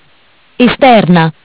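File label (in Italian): "Esterna", Italian; Bentel; BLE320; MESSAGGI VOCALI; Audio BGS220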